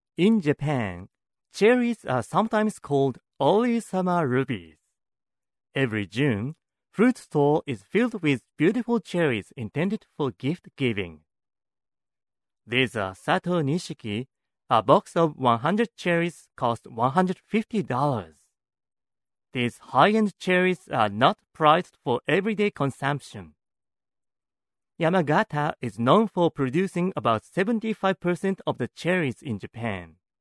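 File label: Japanese, male, English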